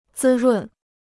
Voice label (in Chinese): "滋润", Chinese